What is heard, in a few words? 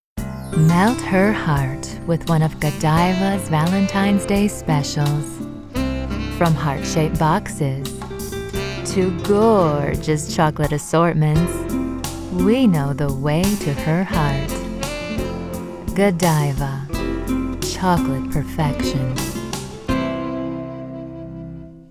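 American English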